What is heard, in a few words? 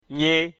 "nye", syllabes, mina; mina-alphabet